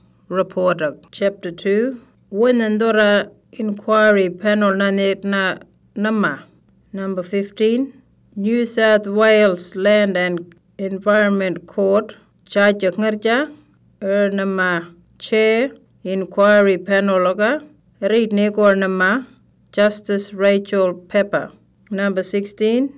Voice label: Western Arrarnta; issues paper; Fracking